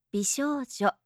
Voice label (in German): "bishojo", japanische maedchennamen